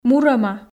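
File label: "му рӧма", Komi, female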